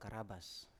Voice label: "karabas", Biak